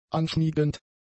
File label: "anschmiegend", German; adjectives